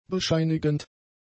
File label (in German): "bescheinigend", German; adjectives